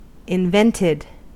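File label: "invented", US English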